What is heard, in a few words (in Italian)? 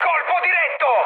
bomb success, mood high, speech it; War Thunder